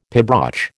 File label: "pibroch", American